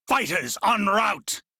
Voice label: Crew Gun Spot Fighter, Male; Dialog; en; Warframe; Railjack Crew; Arbiters Hexis